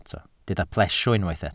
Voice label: "plesio", welsh

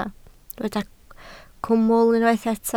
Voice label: "cwmwl", Welsh

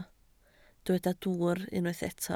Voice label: "dwwr", Welsh

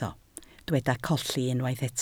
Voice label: "colli", Welsh

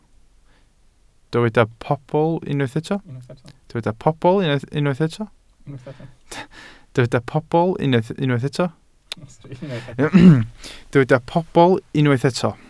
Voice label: "pobl", Welsh

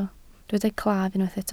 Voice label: "claf", Welsh

